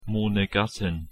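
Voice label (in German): "monegassin", German; pronunciation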